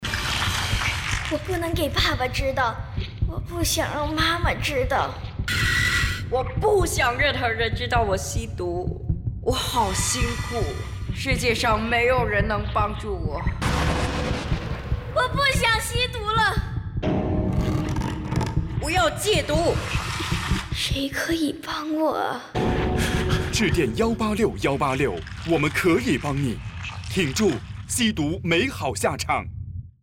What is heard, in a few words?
antidrug youngsters mandarin